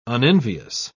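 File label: "unenvious", British English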